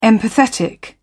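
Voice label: "empathetic", British English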